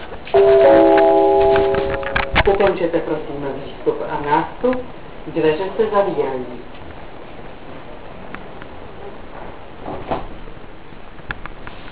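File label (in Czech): odjezd, Vlaková hlášení, Pražské metro; Ukončete, prosím, výstup a nástup, dveře se zavírají. Příští stanice Můstek, přestup na linku B.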